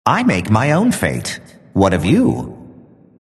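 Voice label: announcer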